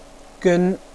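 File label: "gen1", Mandarin Chinese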